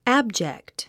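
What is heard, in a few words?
"abject", English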